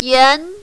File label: "yan2", mandarin, female